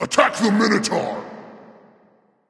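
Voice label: vox attack male; smite; bakasura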